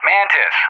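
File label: "Mantis", English; JTAC; Callsign; DCS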